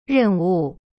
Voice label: "任务", Chinese; ren wu

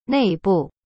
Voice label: "内部" nei bu, Chinese; cyberchinese

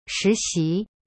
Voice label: "实习" shi xi, chinese

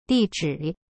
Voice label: "地址", Chinese; di zhi

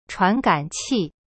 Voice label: "chuan2gan3qi4", Chinese; cyberchinese

